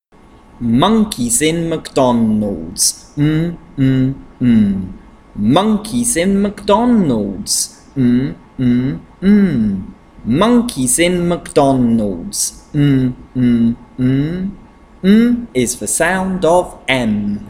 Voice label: Consonant Chant m

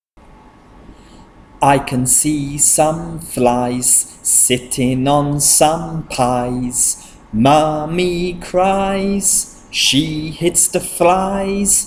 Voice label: Medial Long Vowels Chant ie

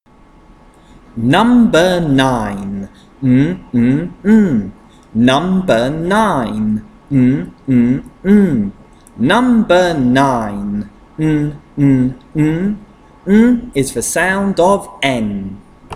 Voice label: Consonant Chant n

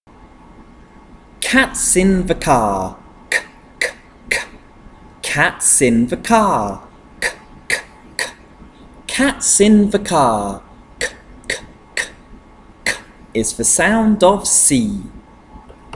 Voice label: Consonant Chant c

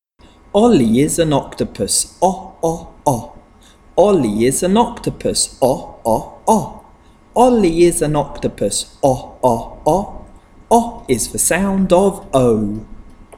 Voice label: Vowel Chant o

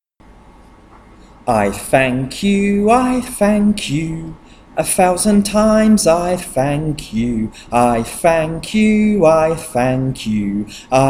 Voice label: Digraphs Chant th

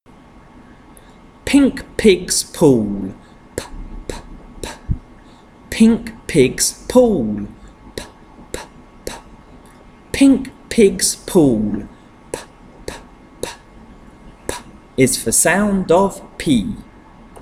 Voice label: Consonant Chant p

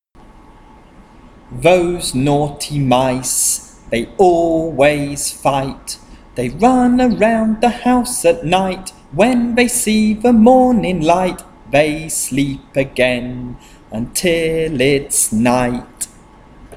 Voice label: Medial Long Vowels Chant igh

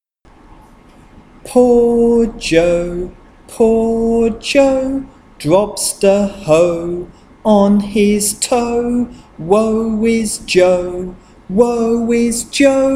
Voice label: Medial Long Vowels Chant oe

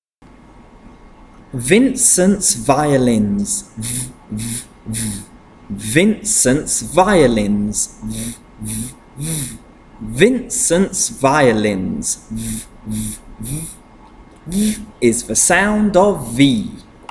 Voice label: Consonant Chant v

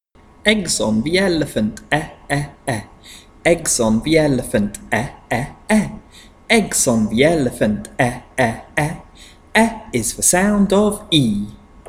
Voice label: Vowel Chant e

